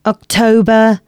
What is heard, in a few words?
female, announcements